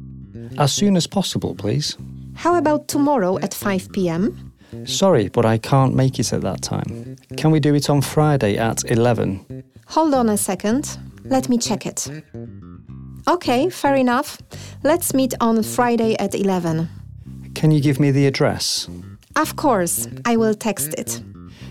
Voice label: Dialog